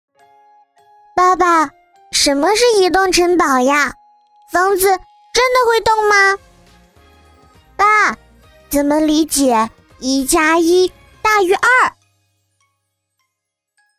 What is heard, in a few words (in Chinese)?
角色 女童